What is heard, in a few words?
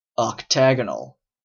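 "octagonal", Canadian English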